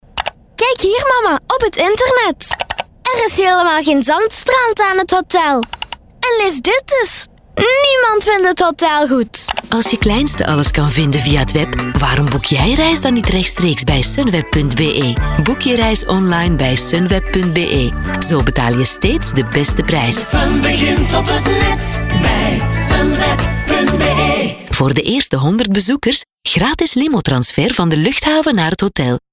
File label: teenage voice